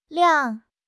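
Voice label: "liang3", Chinese; HSK; HSK2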